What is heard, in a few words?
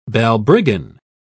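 "balbriggan", British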